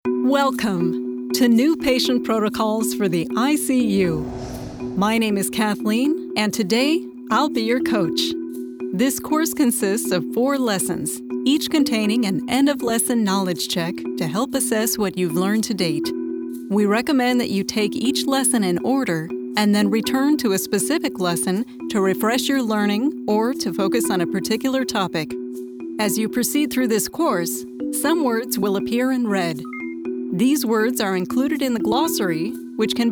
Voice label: corporate, voice over; icu patient protocols